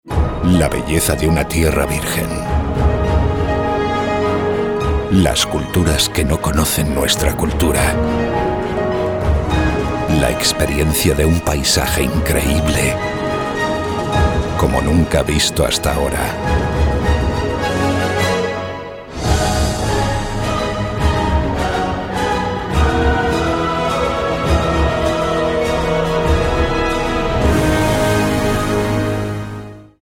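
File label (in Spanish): generico España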